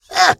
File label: hurt vo; gale